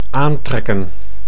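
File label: "aantrekken", Dutch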